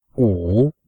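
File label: "wu3", Chinese; Cours de Chinois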